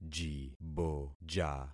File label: "jiboja", concat syllables; Affixing experiment